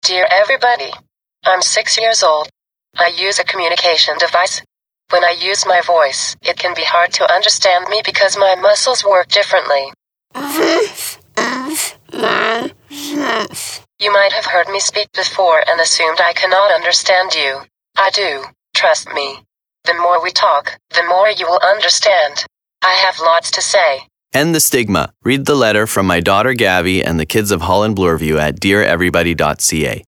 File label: radio ad; Dear Everybody